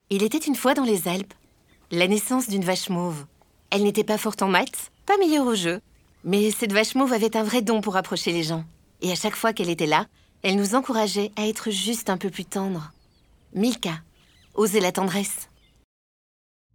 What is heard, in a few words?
french commercial